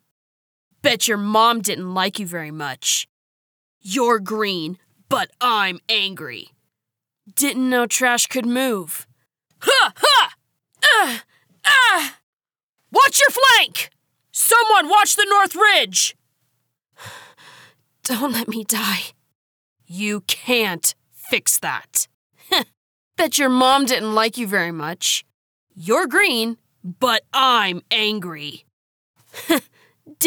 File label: Recruit Female